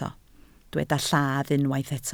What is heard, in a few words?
"lladd", Welsh